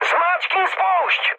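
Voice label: attack position, Czech, mood high; speech; War Thunder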